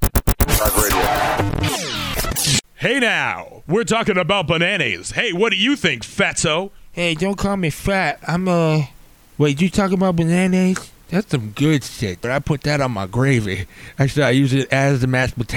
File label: Commercial Break; Talkin Bout Banannaise